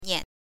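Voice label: "nian", Mandarin pinyin, neutral tone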